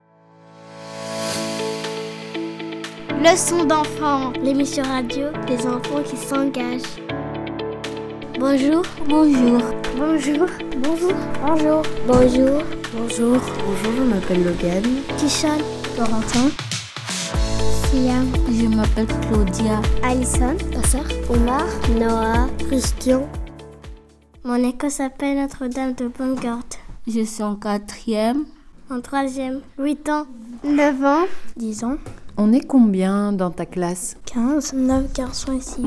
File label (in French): Message accueil; Yvoir